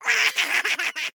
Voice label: Voices imp; Plants vs. Zombies